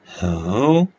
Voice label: short voyels arabic alphabet